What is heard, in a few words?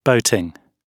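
"boating" British English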